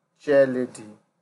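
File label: "chairlady", Nigerian Pidgin, male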